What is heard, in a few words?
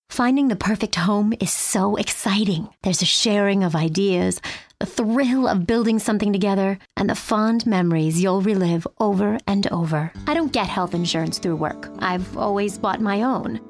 Commercial, Female voices